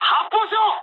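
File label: Japanese attack position mood med; War Thunder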